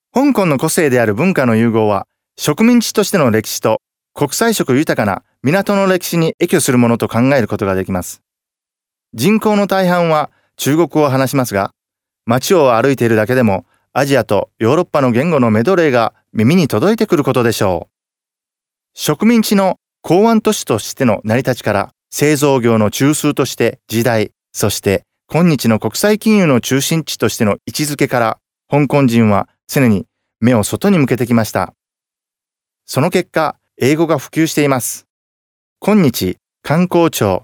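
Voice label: Japanese male corporate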